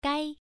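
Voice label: "gai", Mandarin Chinese, first tone, pronunciation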